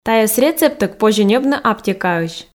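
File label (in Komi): тайӧс рецепттӧг позьӧ ньӧбны аптекаысь, Komi, female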